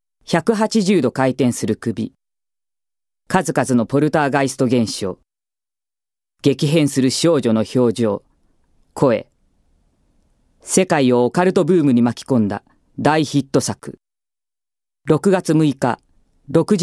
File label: female voice; ナレーション; ナレーション 01